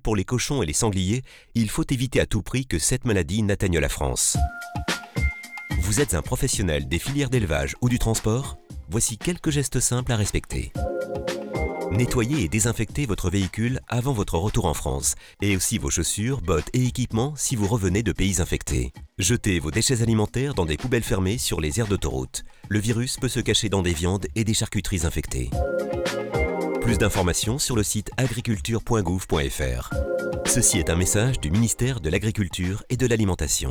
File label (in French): spot peste porcine africaine transporteurs